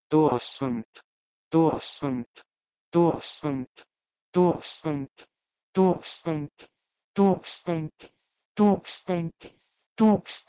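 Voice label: Proto-Indo-European "tuh2sdkmt" to Lithuanian "tukstantis"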